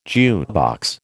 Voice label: "jukebox", American

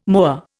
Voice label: "moa", Indian

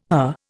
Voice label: "her", Indian

